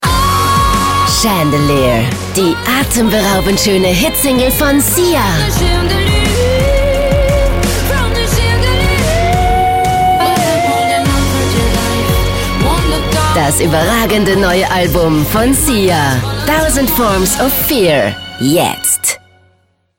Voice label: Werbung

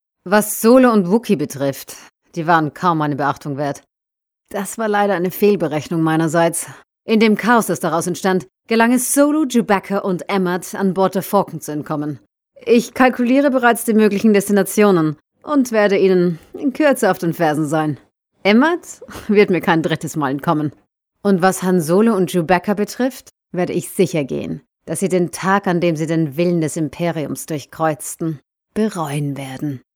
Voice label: Computer Game DE

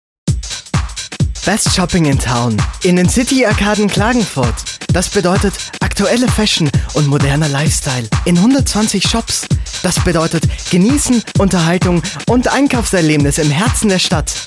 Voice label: Werbung

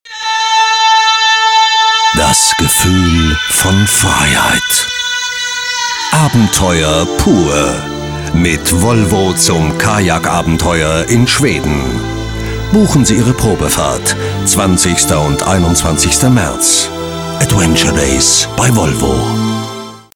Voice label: Werbung DE

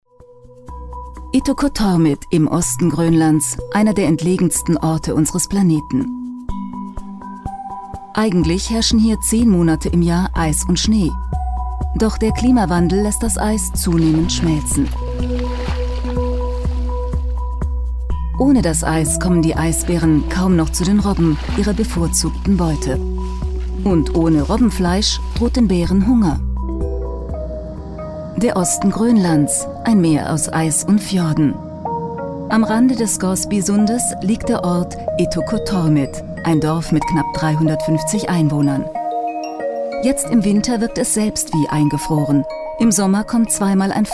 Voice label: Doku; ARTE

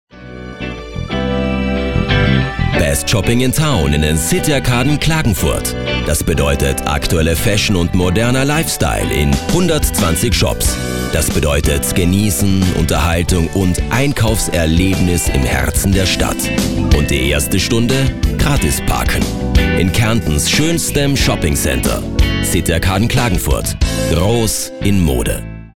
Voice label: Werbung D

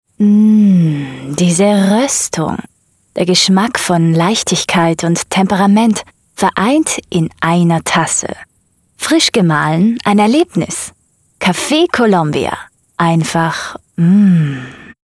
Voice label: Sprechsample Spot; Cafe Colombia

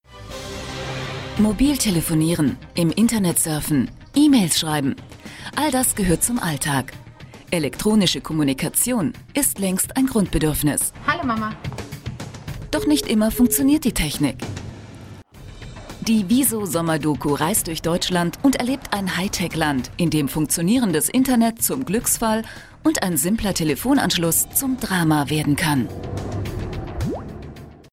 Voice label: Doku; WISOs

